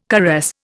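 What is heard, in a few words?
"caress", Indian English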